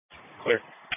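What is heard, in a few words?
Police Patrol Dispatch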